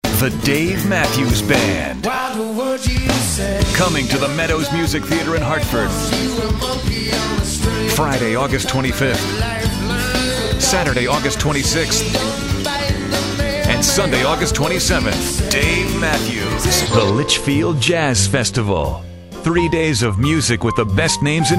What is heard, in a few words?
vo radio ads